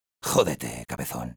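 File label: Sniper taunts, Spanish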